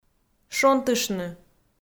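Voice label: "шонтыштны", Komi, female; kpv audio dict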